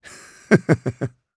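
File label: Vox Happy, Japanese; Lusikiel; kingsraid; voices heroes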